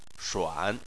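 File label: "shuan", Chinese, third tone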